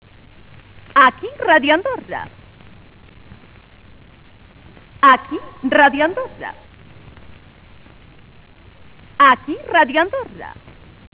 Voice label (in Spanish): locutora